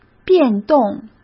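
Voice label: "变动", Mandarin Chinese; hsk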